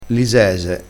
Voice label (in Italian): "lisese", crespinese